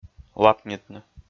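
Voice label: "лапнитны", Komi, male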